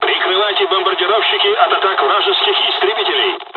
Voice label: bomberscover, dialogs russian; War Thunder